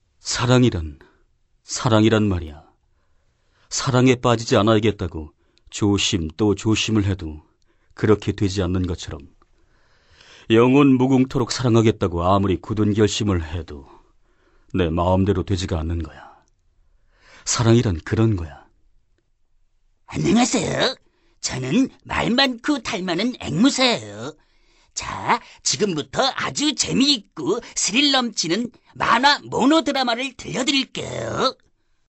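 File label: Korean male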